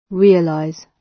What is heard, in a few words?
"realize", gb